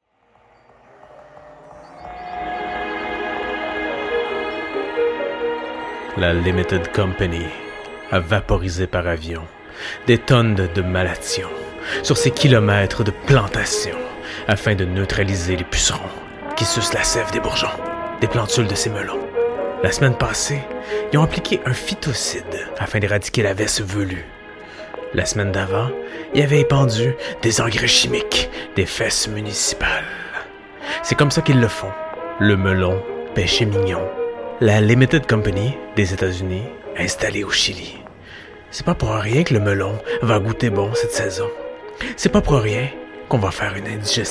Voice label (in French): monologue; peche mignon